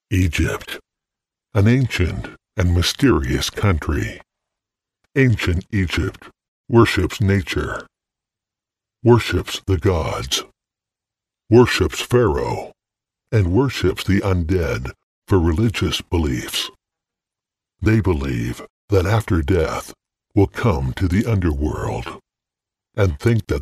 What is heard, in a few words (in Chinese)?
外籍男英 外语 美式英语 低沉; 埃及